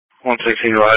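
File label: Police Patrol (Dispatch)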